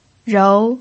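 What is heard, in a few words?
"rou" Mandarin Chinese, second tone, pinyin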